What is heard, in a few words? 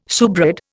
"shewbread", Indian